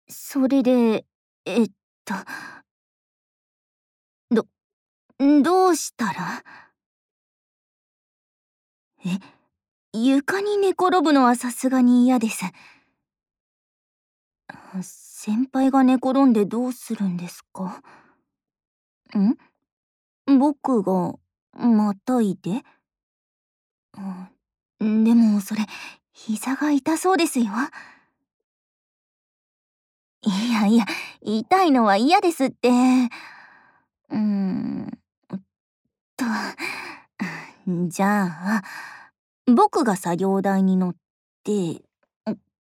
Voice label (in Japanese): LOVE Whisper こうはい, ASMR